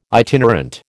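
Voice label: "itinerant", American English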